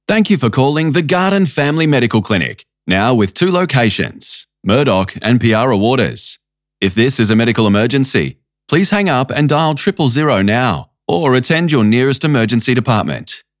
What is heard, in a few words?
After Hours Greeting